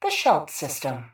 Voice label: PA System; Shelt